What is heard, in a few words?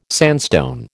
"sandstone", American